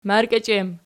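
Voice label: "margichiem" nominative, Archi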